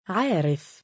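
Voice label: "3aarif" male, Arabic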